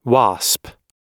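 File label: "wasp", American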